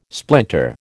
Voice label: "splinter", American